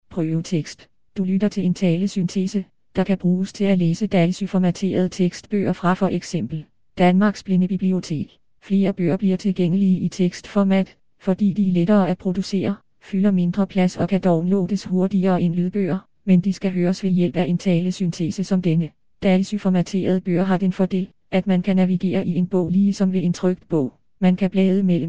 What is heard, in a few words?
danish Female; ida